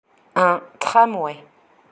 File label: "un tramway" French; vocabulary for town